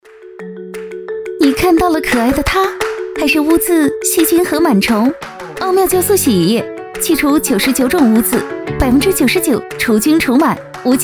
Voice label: 广告 酵素 洗衣液

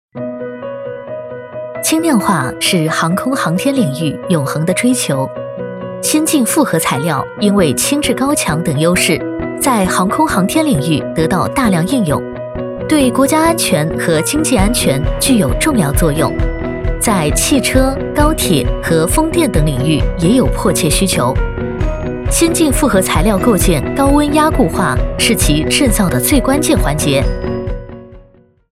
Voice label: 多媒体教学航空航天材料

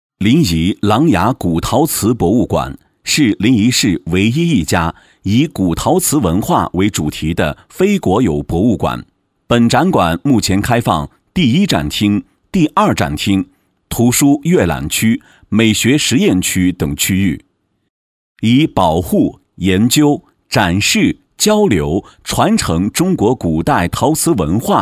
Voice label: 场馆解说

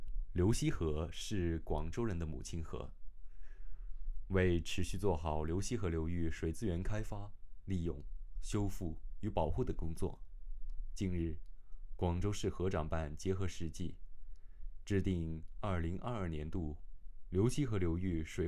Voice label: 国语

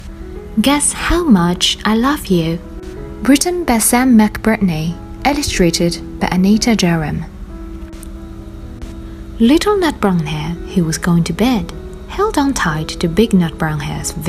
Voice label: 经典英文绘本; 猜猜我有多爱你

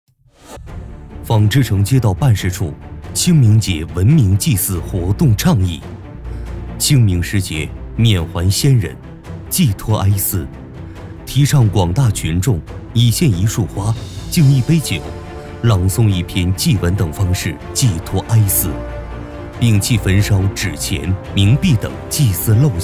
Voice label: 宣传片 通知类

